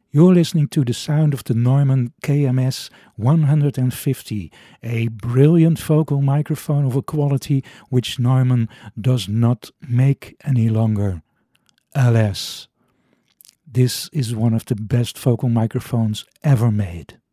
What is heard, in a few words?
Neumann KMS150 sound UK